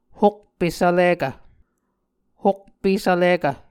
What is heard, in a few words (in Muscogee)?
"hokpe svlikv", Muscogee; Mvskoke Words with Voicing Consonants; A Dictionary of Creek/Muskogee; SIL International; Onvkv Ohhvyayices